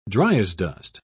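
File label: "dryasdust", British English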